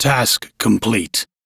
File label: Crew Task Done, Male, en; Warframe; Railjack Crew; Arbiters Hexis